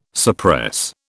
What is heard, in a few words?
"suppress", British